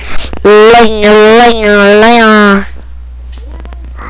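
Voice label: "ring", spoken word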